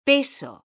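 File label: "peso", Spanish, female; mekanta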